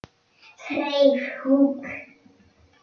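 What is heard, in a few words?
"writing corner", British English; PictureDictionary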